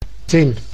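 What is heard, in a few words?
"tin", Dutch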